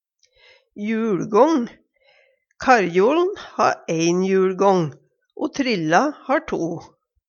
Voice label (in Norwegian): juLgong, Numedalsmål